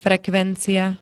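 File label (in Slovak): "frekvencia", Slovak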